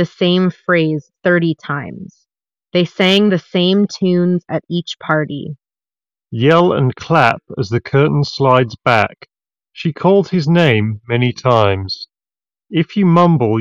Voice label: Edifier W820NB Plus Ideal microphone sample